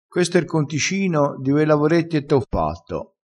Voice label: "sporpa", crespinese

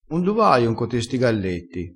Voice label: "cappona", crespinese

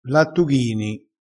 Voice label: "lattughini", dialettale crespinese

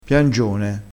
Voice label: "piangione", crespinese

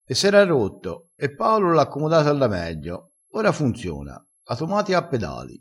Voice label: "atomatio a pedali", crespinese

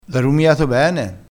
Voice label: "rumiato", crespinese

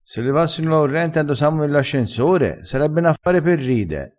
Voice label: "e n affare", crespinese

